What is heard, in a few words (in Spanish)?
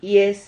"hies", Silabas